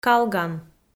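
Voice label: "калган", Komi, female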